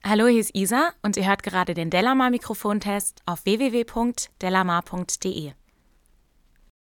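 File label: speech female; sennheiser mk4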